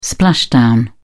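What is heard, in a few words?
"splashdown", British English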